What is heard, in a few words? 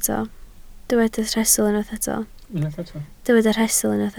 "rhestl", Welsh